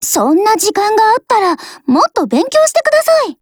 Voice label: DIALOGUE JP

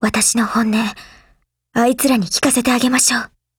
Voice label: GO ATTACK, JP; Thunder50

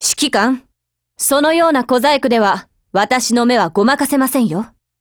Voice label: DIALOGUE JP; Contender

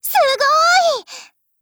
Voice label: APPRECIATE JP

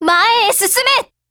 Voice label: ATTACK JP

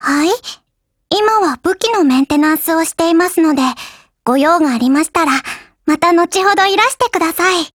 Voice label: DIALOGUE JP; ChauchatM1915

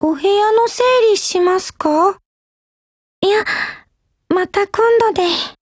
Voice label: DIALOGUE JP

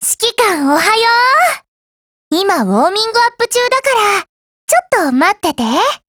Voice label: LOADING, JP

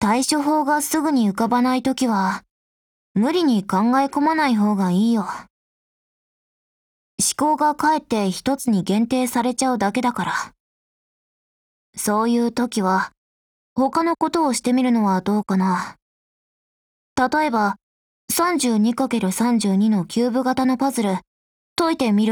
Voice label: DIALOGUE, JP

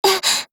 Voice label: MOOD JP

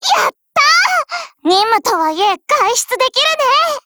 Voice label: GO ATTACK JP

